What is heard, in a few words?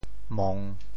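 "mong3", Teochew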